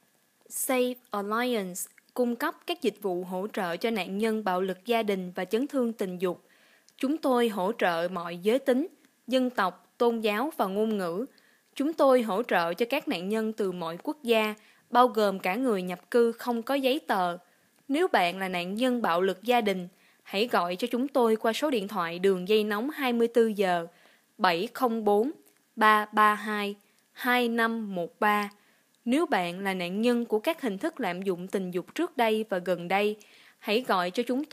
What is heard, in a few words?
vietnamese